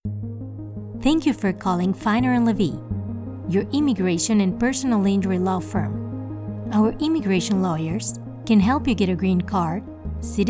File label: Bilingual IVR ENGLISH - SPANISH